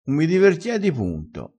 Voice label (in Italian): "divertiedi", crespinese, vocabolario dialettale